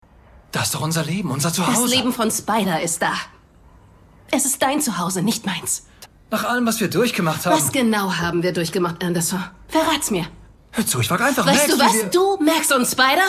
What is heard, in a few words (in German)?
Synchron; Spider